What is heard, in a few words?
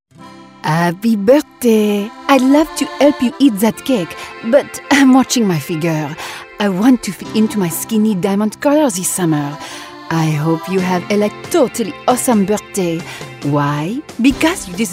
french